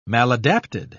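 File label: "maladapted", British English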